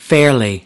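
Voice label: "fairly", spoken word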